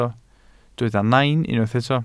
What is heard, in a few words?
"nain", Welsh